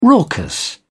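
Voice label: "raucous", British English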